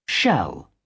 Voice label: "shall", british